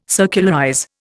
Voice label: "circularize", Indian

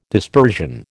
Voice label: "dispersion", American

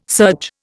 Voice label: "surge", Indian

